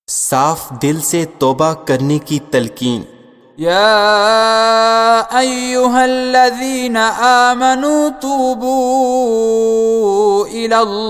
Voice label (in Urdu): Saaf Dil Sy Tuba Karne Ki dua; Masnoon Duain